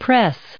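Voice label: "press", us English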